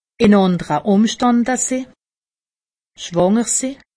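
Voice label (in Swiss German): "schwanger", Alsatian; medecine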